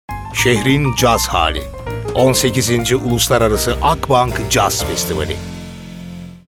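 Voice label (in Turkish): sicak sakin, seslendirme; enerjik tempolu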